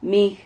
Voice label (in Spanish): "mig", Silabas